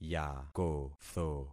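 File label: "yakotho", concatenated syllables; Affixing experiment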